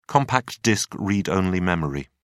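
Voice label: "compact disc read-only memory", British English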